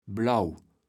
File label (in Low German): "blauw", kaevels platt